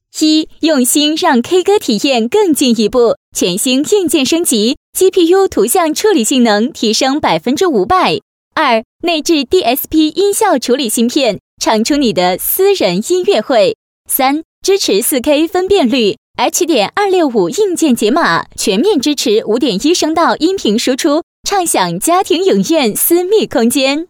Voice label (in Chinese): 女, 甜美, K歌体验; 用心让K歌体验更进一步